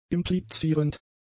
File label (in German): "implizierend", German; adjectives